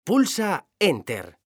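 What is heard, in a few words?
"pulsa enter", Spanish; mekanta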